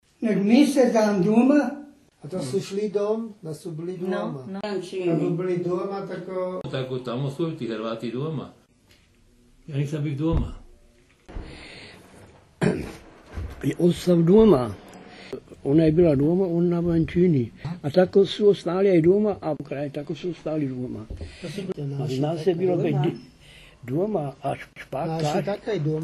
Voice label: Long and rising uo: duóma 'at home'